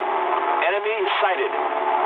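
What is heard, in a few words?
English enemy detected mood low; speech; War Thunder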